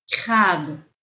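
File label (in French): "crabe", French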